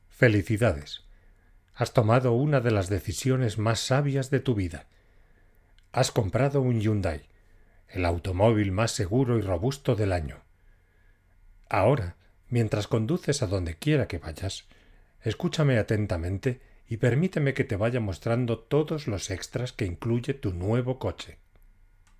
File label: E LEARNING; HYUNDAI